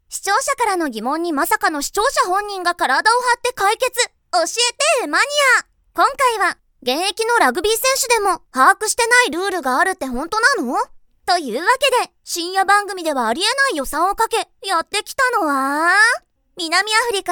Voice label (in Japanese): キャラクターナレーション, 女性ナレーター